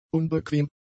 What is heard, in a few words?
"unbequem", German; adjectives